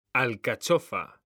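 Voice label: "alcachofa", Spanish, male; mekanta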